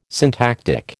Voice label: "syntactic", American